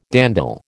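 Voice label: "dandle", American English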